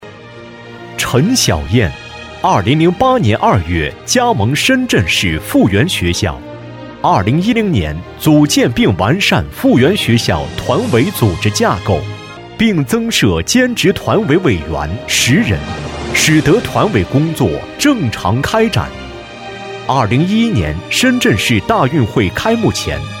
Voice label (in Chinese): 男, 颁奖; 富源学校颁奖